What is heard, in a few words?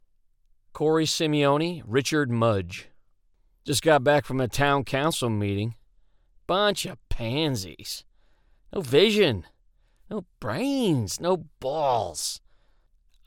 MALE